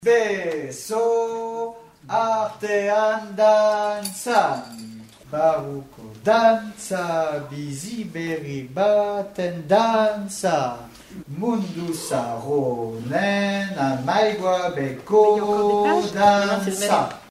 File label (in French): Prononciation mesures 74 et suivantes